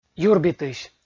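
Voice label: "юрбитысь", Komi, male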